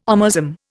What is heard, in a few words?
"omasum", Indian